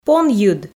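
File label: "понйыд" Komi, female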